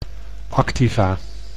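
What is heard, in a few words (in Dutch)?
"activa", Dutch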